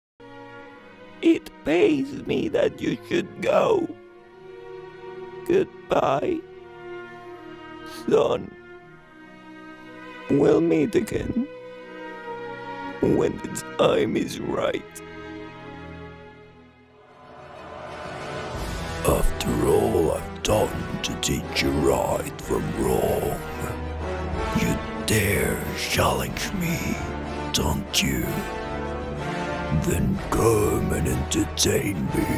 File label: creature sample